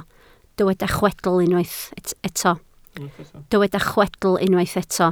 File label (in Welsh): "chwedl" Welsh